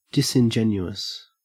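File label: "disingenuous", Australian English; pronunciations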